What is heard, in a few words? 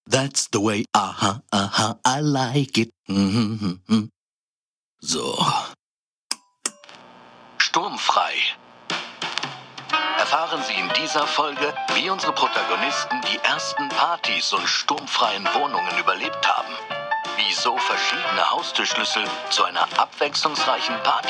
Station Voice, Podcast, German; Stationvoice Sumscity Podcast 2